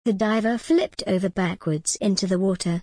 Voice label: en gb the diver flipped over backwards into the water